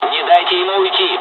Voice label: dialogs russian; War Thunder